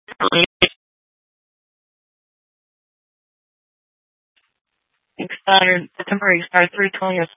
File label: Sheriffs Office, scanner